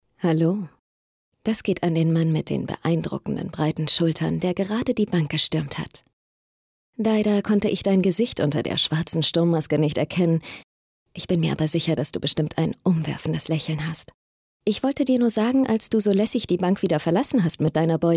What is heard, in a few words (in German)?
Werbung verfuehrerisch; LOFT VOICES